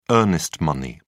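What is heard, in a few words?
"earnest money", British English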